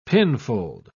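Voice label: "pinfold", British English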